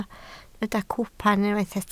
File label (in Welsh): "cwpan", Welsh